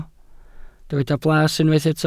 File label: "blas", welsh